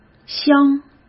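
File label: "镶", Mandarin Chinese; chinese flashcards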